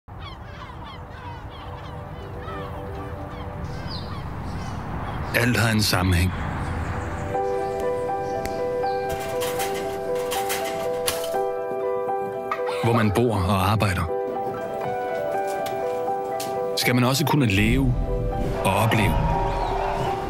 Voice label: Danish Voice Over